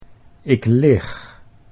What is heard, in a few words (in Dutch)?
ik lig, Dutch